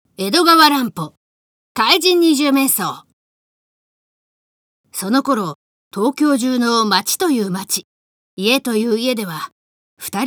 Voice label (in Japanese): boy; 怪人二十面相; Japanese